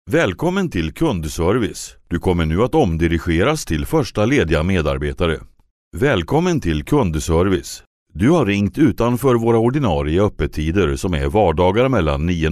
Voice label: swedish male narration